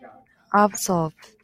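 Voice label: "absorb", Japanese, female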